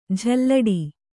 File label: "ಜಲ್ಲಡೆ", kannada